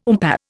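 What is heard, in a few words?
"oompah", Indian English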